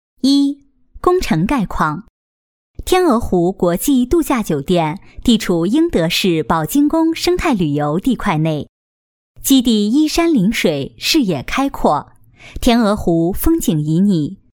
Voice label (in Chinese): 多媒体工程规划设计方案PPT汇报类端庄正式大方; 规划专题